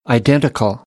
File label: "identical", American English; ə - ɪ